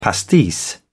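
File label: "pastis", British English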